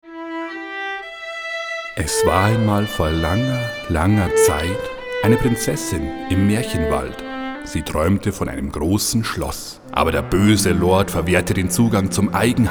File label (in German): Werbung Sparkasse